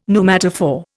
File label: "pneumatophore", Indian; Dictionary